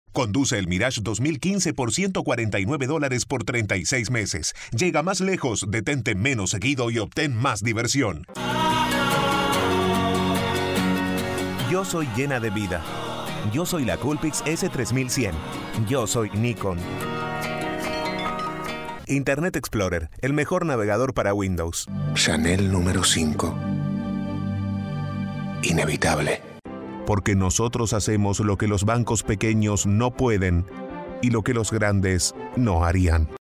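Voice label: Commercial Spanish Male, Rich, Conversational, Deep; Commercial Voiceover, TV and Radio, International Voiceover, Spanish (Latin and Castillian)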